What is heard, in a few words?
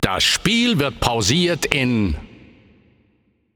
Announcer German Game Pausing